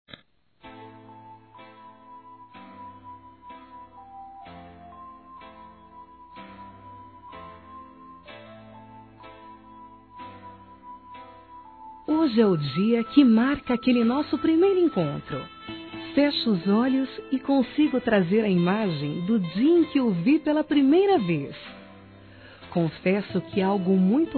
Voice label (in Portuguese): ANIV. TEMPO NAMORO F; Aniversários; ANIVERSARIO romantico; fem